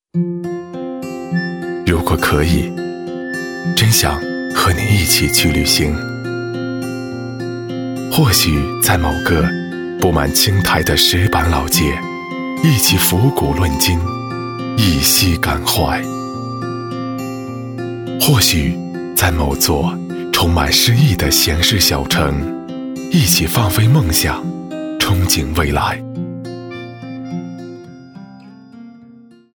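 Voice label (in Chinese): 男声, 城市形象片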